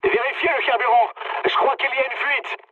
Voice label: tank damaged mood med, speech fr; War Thunder